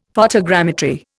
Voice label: "photogrammetry", Indian; Dictionary